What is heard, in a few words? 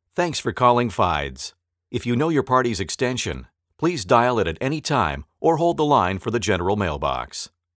IVR Greeting Sample